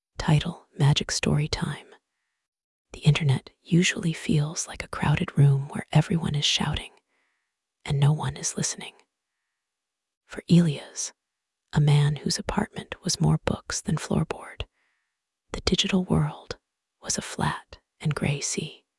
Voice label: english; micro-tales